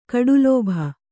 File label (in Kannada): "ಕಡುಲೋಭಿ", Kannada